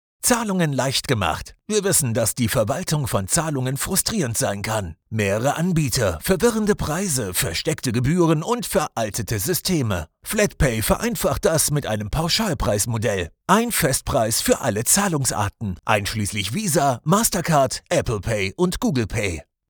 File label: male german commercial; flatpay